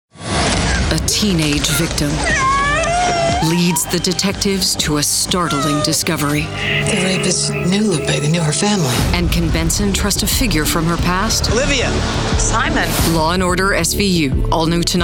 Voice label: Promo

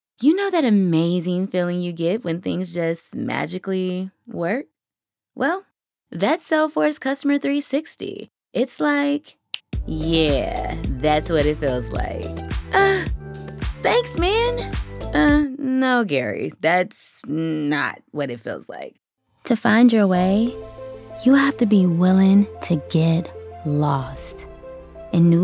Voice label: Commercial Demo

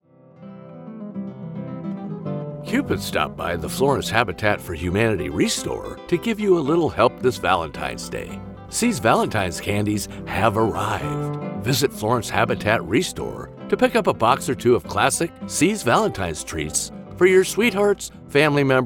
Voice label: PSA; FlorenceHabitat-VdayCandy

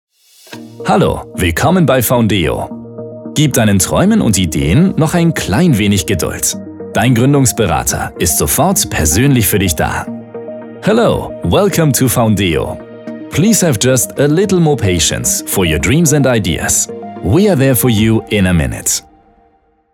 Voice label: Phone Service

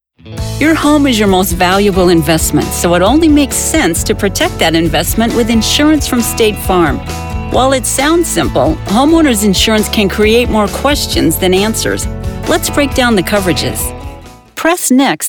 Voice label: eLearning

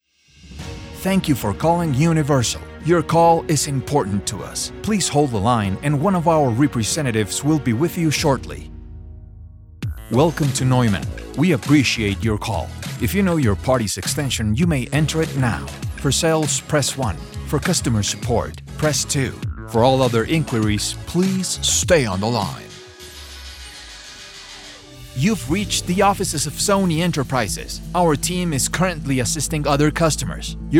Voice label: Telephony

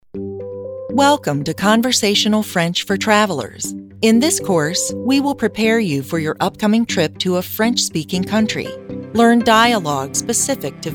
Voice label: e-learning

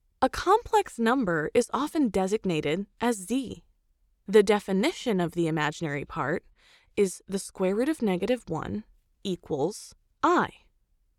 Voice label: Math eLearning High School

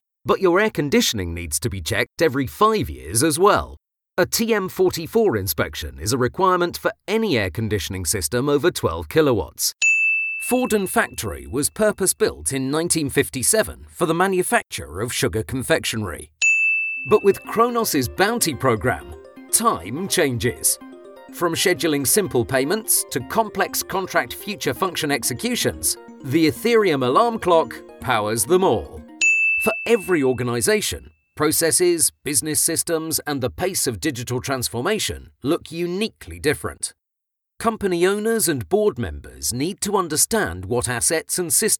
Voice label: B2B Corporate voice reel

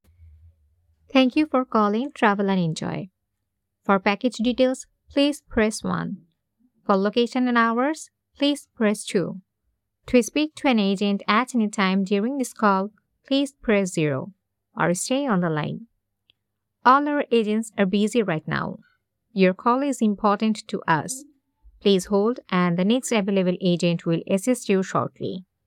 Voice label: IVR

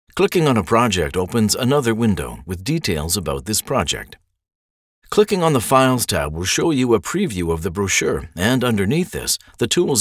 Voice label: E-learning

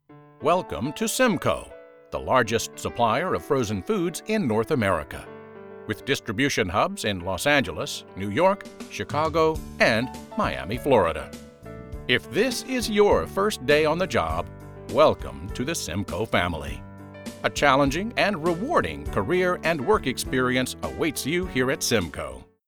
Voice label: e-learning

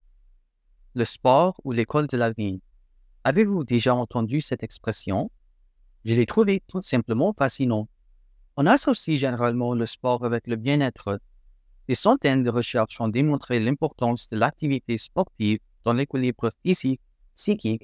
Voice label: French

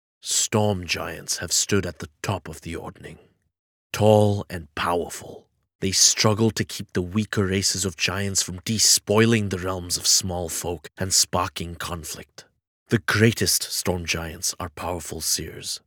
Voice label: English Storytelling Ebook Reading

